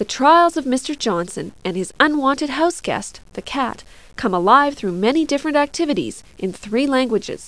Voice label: English Main Menu; Victor Vector & Yondo; The Cyberplasm Formula